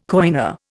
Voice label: "coiner", Indian

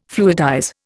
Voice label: "fluidize", Indian

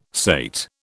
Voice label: "sate", British

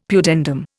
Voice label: "pudendum", Indian; Dictionary

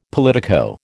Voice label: "politico", American

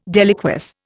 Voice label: "deliquesce", Indian

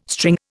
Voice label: "string", Indian

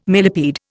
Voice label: "millepede", Indian

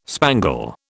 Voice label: "spangle", British

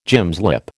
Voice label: "gymslip", American

